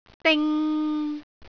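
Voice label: "ding3", Cantonese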